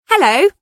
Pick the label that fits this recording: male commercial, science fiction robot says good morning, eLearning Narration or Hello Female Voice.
Hello Female Voice